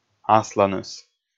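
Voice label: "асланыс", Komi, male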